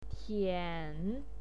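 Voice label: "tian", Mandarin Chinese, third tone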